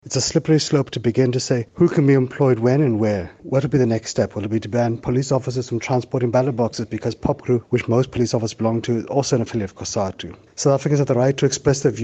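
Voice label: English; Malema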